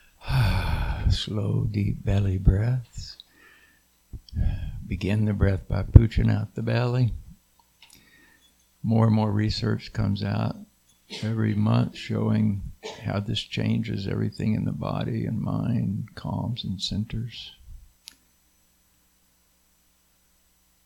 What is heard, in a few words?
Meditation; Spring Rains Meditation